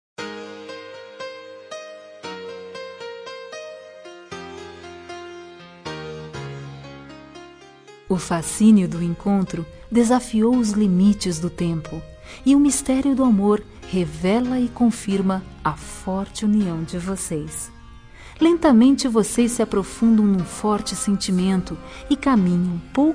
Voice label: Parabéns pelo noivado fem; Datas importantes; Várias datas

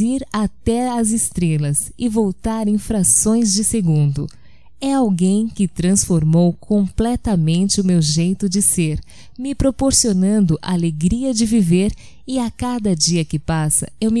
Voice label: O Amor Feminino, Telemensagens, Só Voz; Telemensagens 2017

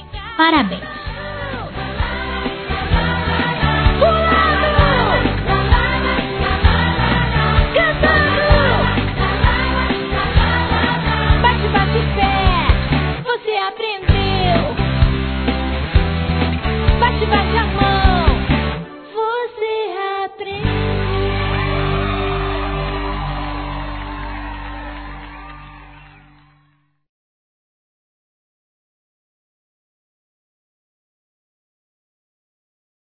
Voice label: aniv. primo, Telemensagens; Aniversário Infantil

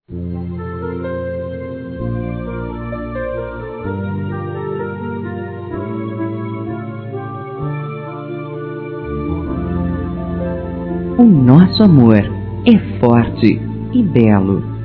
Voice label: Melhorar Relação Feminino; Dia Dos namorados; Telemensagens 2017

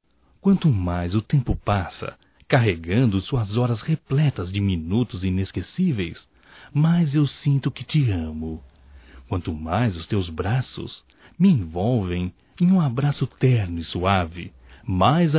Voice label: NATAL ANO NOVO ROMANTICO masc; Natal e ano novo; Telemensagens 2017

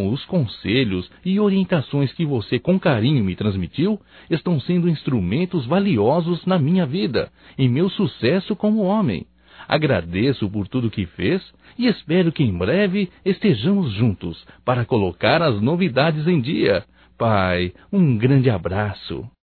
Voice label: SAUDADES PAI, Só Voz, masc; Telemensagens; Telemensagens 2017

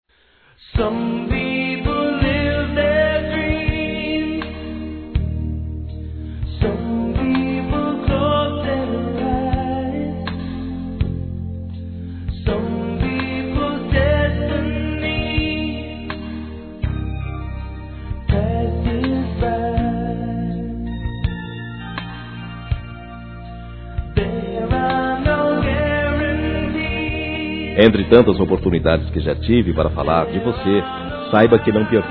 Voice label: Homenagem Padrinho Masculino, Telemensagens; Homenagens

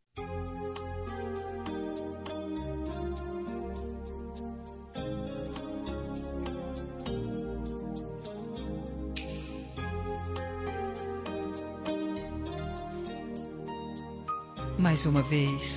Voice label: ROM CONQUISTA INSISTENTE FEM; Telemensagens; Conquistas Cantadas paqueras; Telemensagens 2017

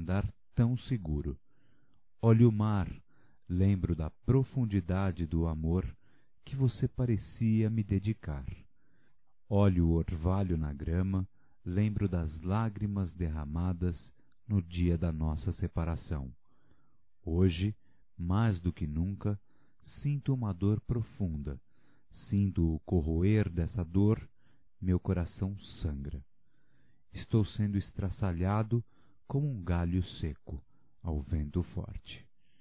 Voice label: Ainda te Amo Masculino, Só Voz; Telemensagens; Telemensagens 2017

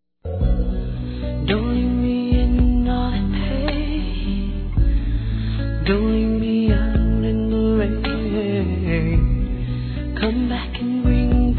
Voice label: Aceito Seu Pedido de Perdão, Masc; Telemensagens 2017

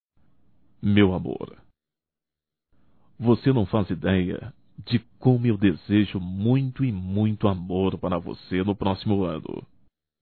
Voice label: ANO NOVO ROMANTICO (M); Natal e ano novo; Telemensagens 2017

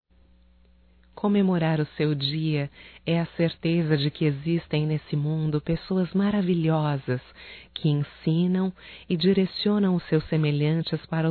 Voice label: Dia Professor Feminino; Datas Mistas; Telemensagens 2017

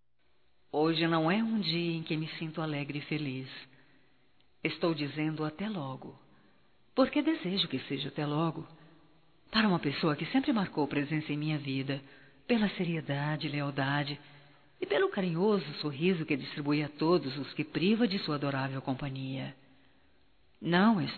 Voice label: BOA VIAGEM, fem; Despedidas e boas vindas; Telemensagens 2017

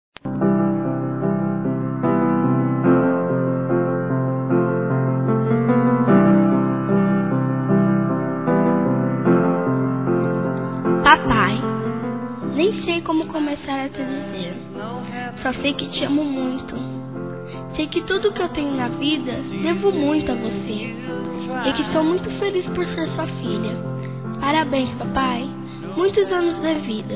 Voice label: Aniversário Pai Infantil Feminino; Telemensagens

